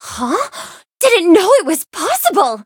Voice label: level up, en

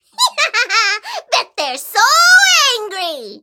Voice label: victory en; cookie run

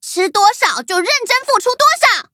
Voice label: loading, zh-hant; Cookie Run

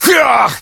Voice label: hit, en; cookie run

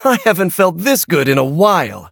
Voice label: levelup, en; cookie run

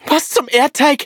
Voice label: hit, de; cookierun

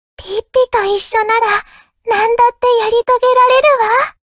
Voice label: level up, ja; Cookie Run

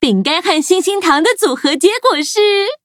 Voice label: level up, zh-hant; cookie run

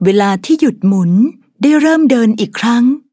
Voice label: level up, th; cookie run

